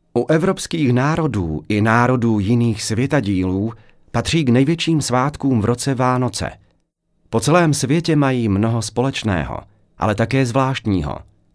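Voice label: Czech, male, narration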